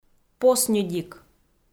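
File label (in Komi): "посньыдик" Komi, female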